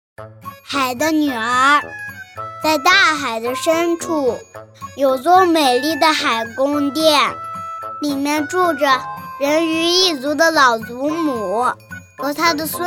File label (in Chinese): 女 真男童 海的女儿